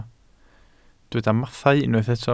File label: "mathau", Welsh